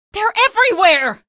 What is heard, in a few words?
evac enemies, female; video games; npc; source engine; episodic; episode 1